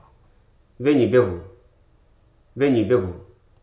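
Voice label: "humiliate", spoken word; hruso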